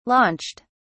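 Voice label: "launched", British English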